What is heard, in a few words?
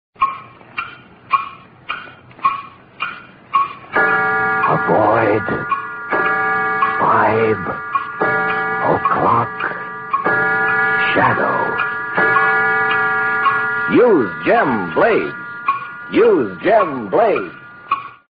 vintage commercials blades; gem